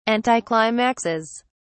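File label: "anticlimaxes", English, British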